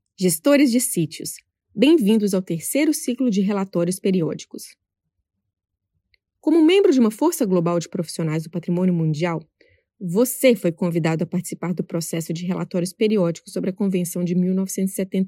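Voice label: Brazilian Portuguese, General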